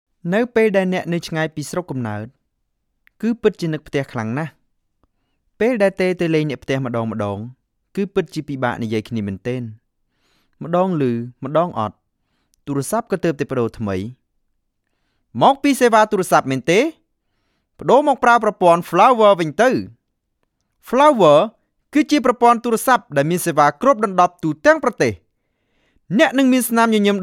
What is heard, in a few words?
Khmer Male; Flower Network